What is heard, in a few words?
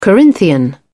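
"corinthian", British English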